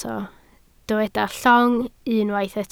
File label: "llong", Welsh